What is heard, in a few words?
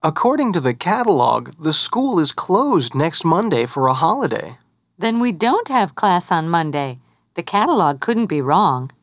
dialog